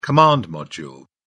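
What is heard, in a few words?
"command module", English, British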